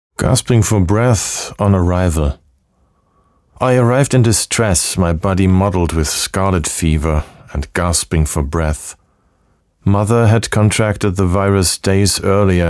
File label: english audiobooks; actor de doblaje profesional